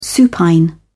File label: "supine", en uk